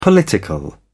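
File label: "political", British English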